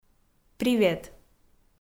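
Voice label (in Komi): "привет" Komi, female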